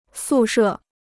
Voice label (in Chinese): "宿舍", Chinese